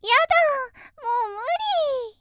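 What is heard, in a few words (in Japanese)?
少女やだぁ、もう無理ぃ（嬉しそう）; 元気な少女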